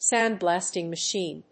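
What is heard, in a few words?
"sand blasting machine", English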